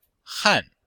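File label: "han4", Chinese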